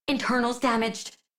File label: Low Health Operator Voice; Operator Mission